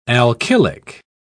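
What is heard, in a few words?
"alkylic", British English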